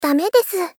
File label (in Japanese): Polite Refuse Dame desu